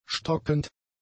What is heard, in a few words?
"stockend", German; adjectives